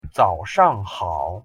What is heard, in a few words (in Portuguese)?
pronuncia mandarim bom dia